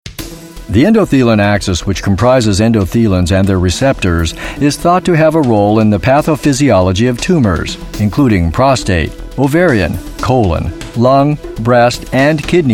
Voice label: medical narration